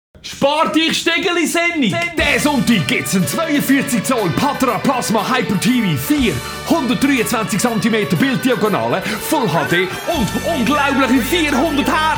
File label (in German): werbung; uranus